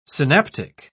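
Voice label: "synaptic", English, British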